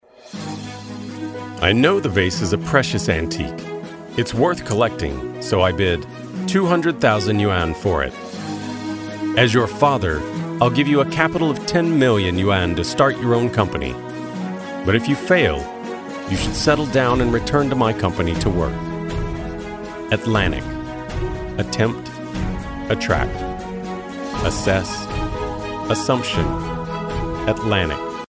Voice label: 美式英语 画外音, 外籍男英; 外语

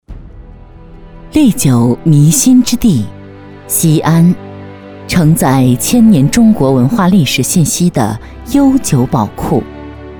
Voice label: 专题 企业 地球环境研究院 稳重; 西安中科院地球环境研究院

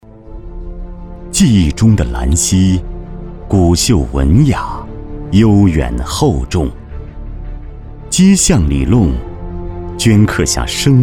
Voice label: 男国 宣传片 地产 浑厚; 房地产宣传

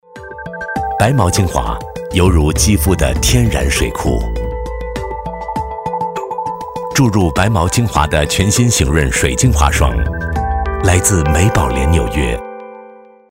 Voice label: 广告 化妆品 自然; 美宝莲纽约

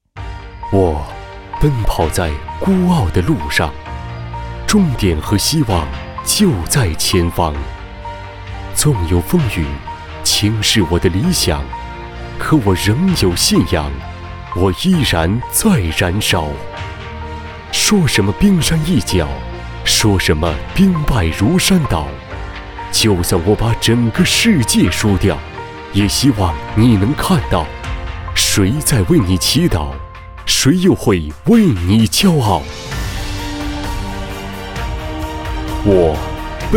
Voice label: 宣传片 企业 宣传片 抒情, 男; 红尧影业

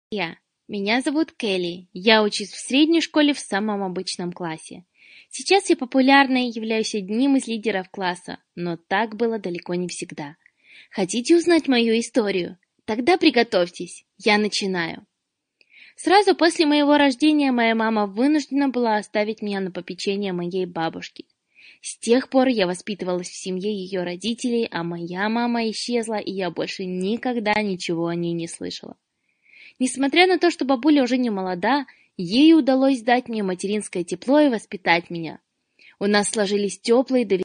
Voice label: 波兰语女, 外语, 小语种

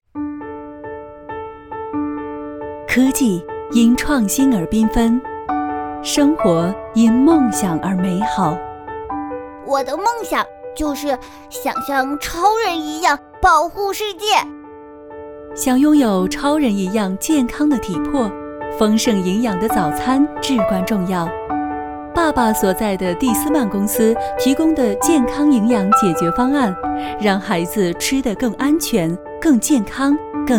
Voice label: 专题 企业 温情; 成长

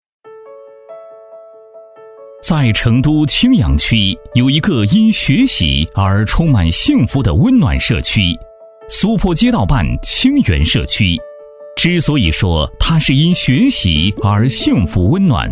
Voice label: 男国 专题 政府 社区 磁性; 成都青羊区社区

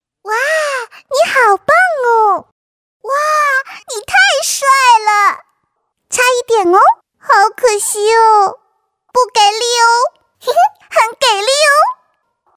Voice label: 动画 游戏 超萌的小萝莉游戏音; 女

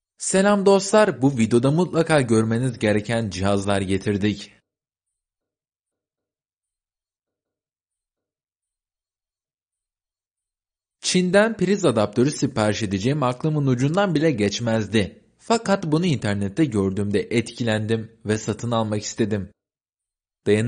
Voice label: 土耳其语男 外语 小语种 样音

